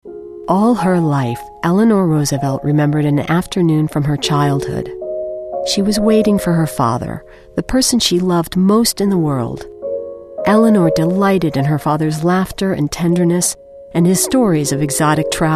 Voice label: narration women